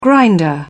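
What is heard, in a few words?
"grinder", British English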